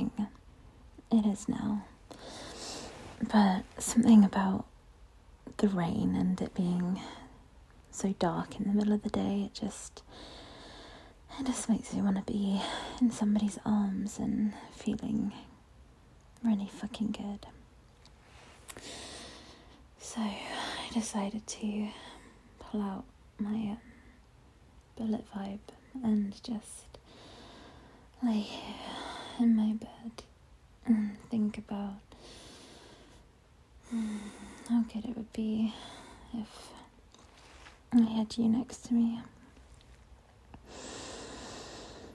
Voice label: Rainy day ramble, British Accent, Morning voice, cosy; Heady